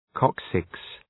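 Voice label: "coccyx", English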